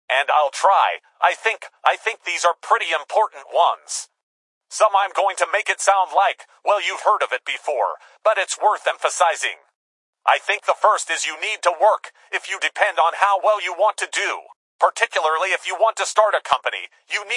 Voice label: rapper, change voice